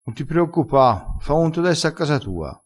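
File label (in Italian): "fa onto", vocabolario dialettale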